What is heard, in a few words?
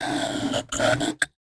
VOICEFX TEKNO 140, Techno, Voice